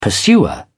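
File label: "pursuer", British English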